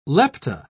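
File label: "lepta", British English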